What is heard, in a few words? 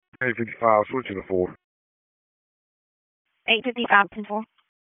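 Sheriff's Office Dispatch; WSO DISP 1; wake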